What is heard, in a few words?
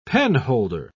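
"penholder", English, British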